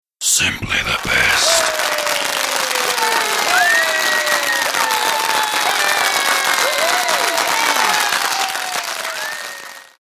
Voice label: The Best Spoken, The phrase 'Simply the best' spoken in deep male voice; The phrase ‘Simply the best’ spoken in deep male voice with rhythmic clapping.; Recorded Voice